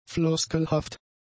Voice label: "floskelhaft", German; adjectives